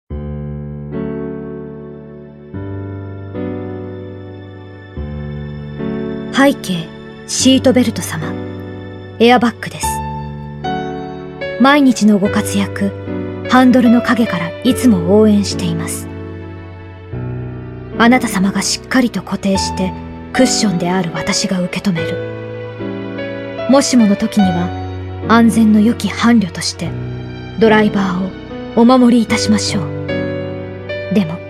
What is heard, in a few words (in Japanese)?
ナレーション